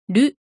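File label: "る", Japanese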